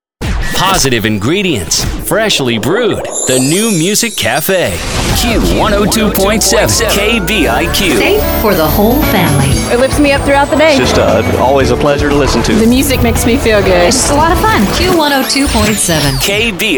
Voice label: radio christian ac; radio imaging